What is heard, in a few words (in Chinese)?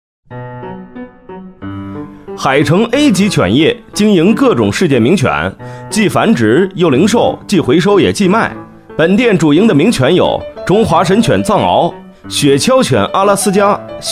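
促销, 男; 海城A级犬业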